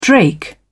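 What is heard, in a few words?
"drake", British English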